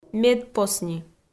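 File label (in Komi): "медпосни", Komi, female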